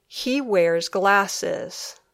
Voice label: "He wears glasses", English

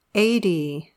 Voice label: "eighty", English

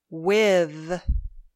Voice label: "with", English

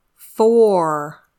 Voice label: "four", English

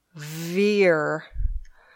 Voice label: "veer", English

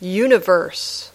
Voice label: "universe", English

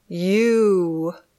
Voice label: "you", English

